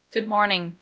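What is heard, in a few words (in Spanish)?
Voice Acting, es, female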